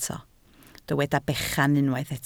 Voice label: "bechan", Welsh

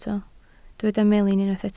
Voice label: "melin", Welsh

